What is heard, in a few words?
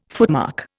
"footmark", Indian